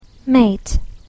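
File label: "maid", English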